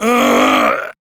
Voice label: Death, Male; Warframe; Railjack; Arbiters Hexis